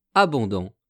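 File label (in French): "abondant", French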